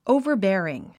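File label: "overbearing", English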